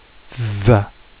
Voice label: th voiced, Phonics Sounds